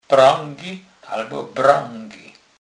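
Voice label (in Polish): "pregy", Kurpie dialect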